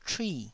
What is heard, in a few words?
"chui4", taiwanese